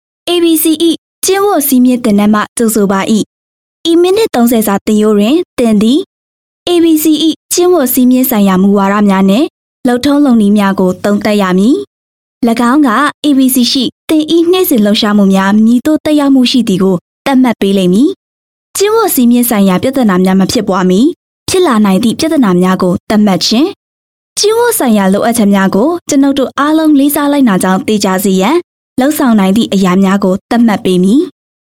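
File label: f Burmese E Learning, female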